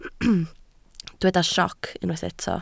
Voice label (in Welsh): "sioc" Welsh